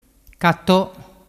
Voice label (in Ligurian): "cato", dialettu